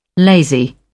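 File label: "lazy", English, British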